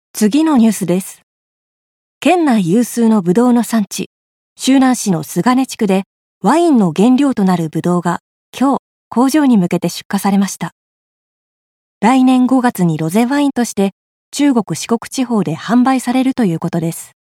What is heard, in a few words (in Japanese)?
ニュース